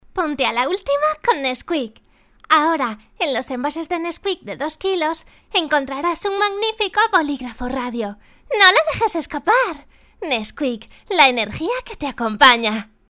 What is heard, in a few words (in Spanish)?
voz nina, spanish kids voice actors; Spanish child voice over